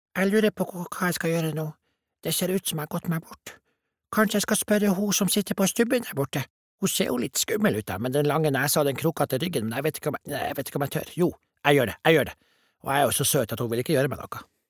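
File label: Norwegian dub character nordnorsk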